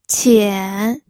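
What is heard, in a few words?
"qian", Mandarin Chinese, third tone; pinyin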